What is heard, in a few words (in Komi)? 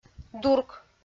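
"дурк", Komi, female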